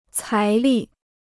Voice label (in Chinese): "财力", Chinese